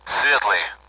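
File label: Speech Sound Russian Common AWACS Callsign; Light; DCS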